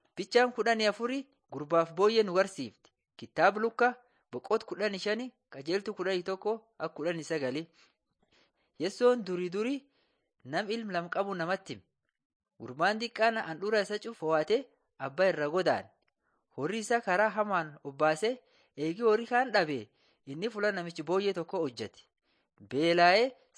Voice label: borana sakuye; laali chaqasi jiradin 7 jesus lord and saviour